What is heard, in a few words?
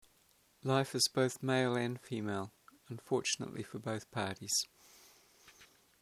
life male female both